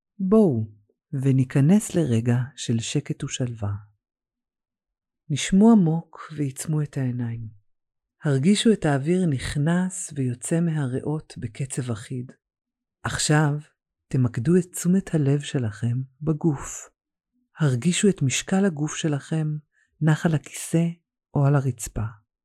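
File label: Hebrew, female, Meditation, voice over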